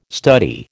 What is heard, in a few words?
"study", American